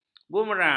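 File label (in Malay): "boomerang", Malay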